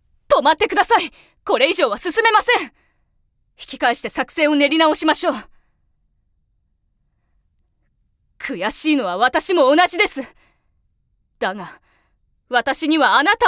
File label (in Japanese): 忠誠心のある女騎士